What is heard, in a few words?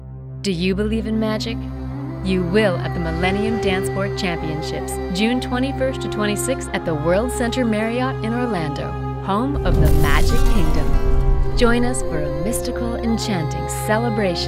Television spots